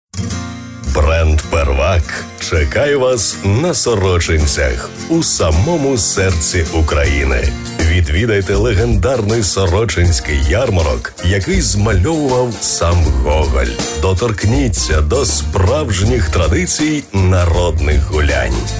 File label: ukr, dictory men